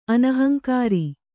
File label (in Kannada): "ಅನಹಂಕಾರಿ", Kannada